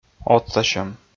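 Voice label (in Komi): "отсасьӧм", Komi, male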